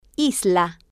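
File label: "isla", Spanish, female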